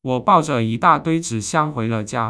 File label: synthesized; fast speech2; AISHELL3